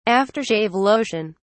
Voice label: "after shave lotion", uk

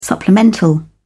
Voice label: "supplemental", en uk

